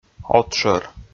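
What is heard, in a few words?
"отшӧр", Komi, male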